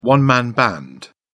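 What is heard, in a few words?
"one man band", English, British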